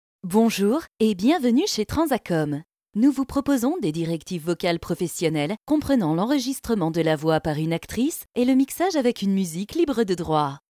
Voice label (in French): Dynamique, actrices